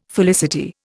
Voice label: "felicity", Indian